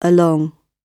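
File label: "along", British English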